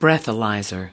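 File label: "breathalyzer", US English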